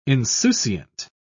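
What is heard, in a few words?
"insouciant", British English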